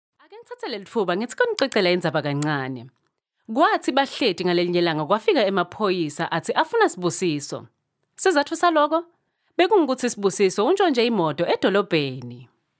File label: female swazi voice over narrative